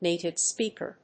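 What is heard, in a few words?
"native speaker", English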